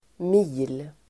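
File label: "mil", Swedish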